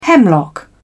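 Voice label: "hemlock", British English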